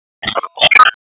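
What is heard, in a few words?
Raleigh Police North District Tactical; wake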